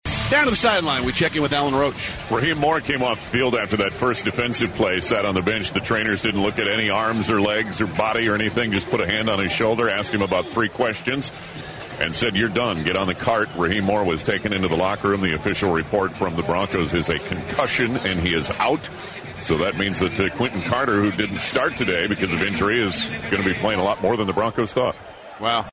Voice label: radio sideline report